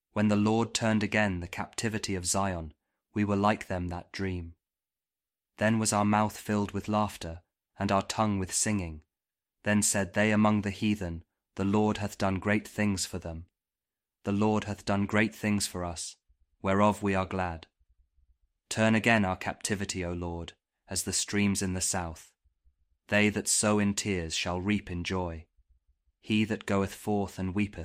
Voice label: psalm kjv king james audio bible